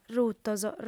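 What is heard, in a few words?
"rutos", female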